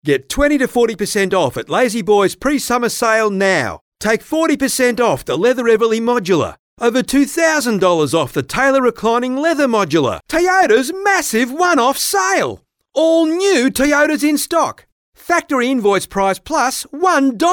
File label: Hard Sell; Voice Overs